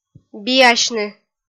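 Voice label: "биасьны", Komi, female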